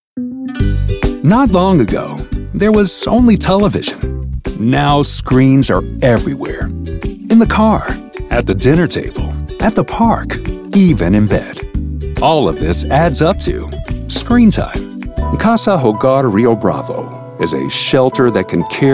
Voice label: American English Voiceover Sample

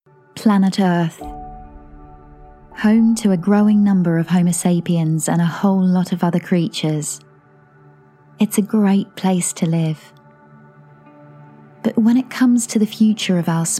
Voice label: English Voice Over Sample

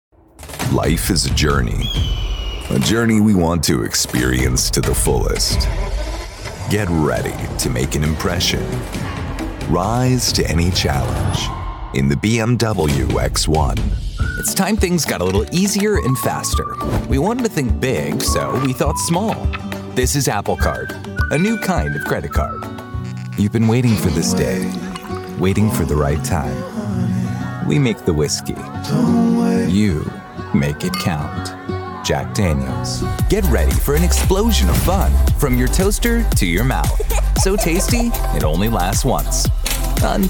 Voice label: english voice over short tv ads

